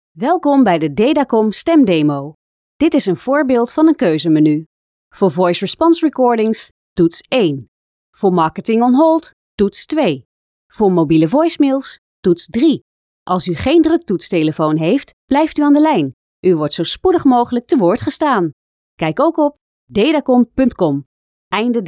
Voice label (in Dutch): Voice over Nederlandse vrouw